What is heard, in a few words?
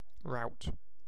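"rout", British English; pronunciations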